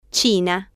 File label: "china", Spanish